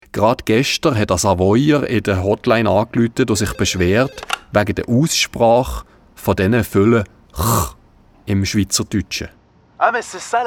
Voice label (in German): Audio Hoerspiel